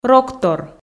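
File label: "роктор", Komi, female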